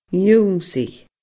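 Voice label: "ionnsaigh", Scottish Gaelic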